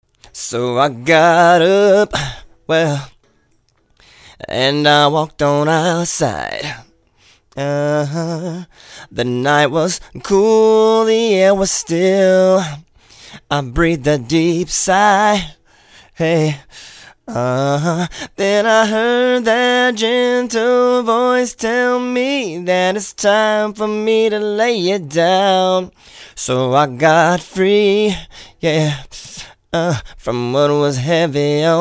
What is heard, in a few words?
Vox Dry; csr plate